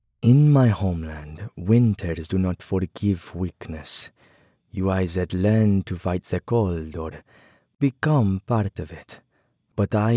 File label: European Accent Deadly Short Dangerous Elite; male voiceover; Zani; Viper